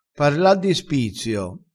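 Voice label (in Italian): "spizzio", vocabolario dialettale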